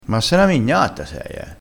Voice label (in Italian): "mignatta", crespinese